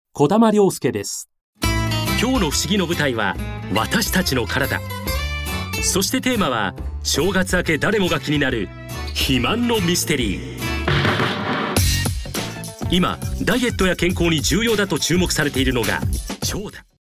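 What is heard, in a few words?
male tv program, English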